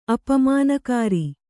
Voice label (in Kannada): "ಅಪಮಾನಕಾರಿ", Kannada